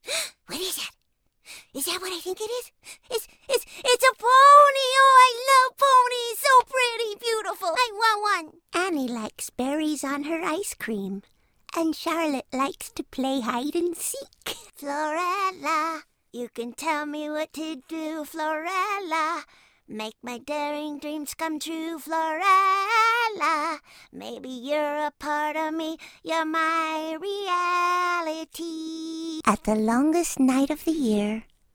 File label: child voices